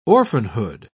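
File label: "orphanhood", British English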